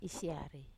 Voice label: "siar", Wamesa

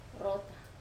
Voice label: "rota", Wamesa

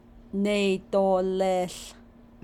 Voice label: carry a ropelike thing around 3rd person, navajo

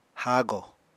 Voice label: "hago", apatani

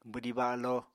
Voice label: "bwdí balo", Apatani

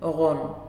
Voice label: Rice, Nalik

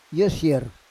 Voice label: "yosier", Roon

